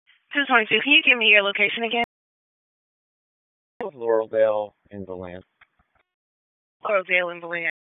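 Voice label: Police Dispatch, p25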